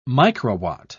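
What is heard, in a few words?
"microwatt", British English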